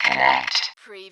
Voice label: Robot voice, "connect"; lynne publishing